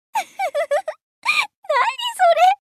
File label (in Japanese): （笑う）「うふふふ！何それ！」; エモーション